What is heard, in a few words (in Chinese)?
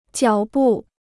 "脚步", Chinese; 脚步 (jiǎo bù): footstep